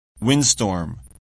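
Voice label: "windstorm", English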